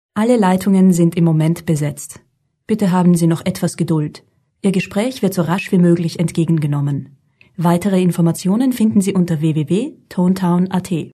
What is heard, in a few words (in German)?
Telefon; Telefonwarteschleife ToneTown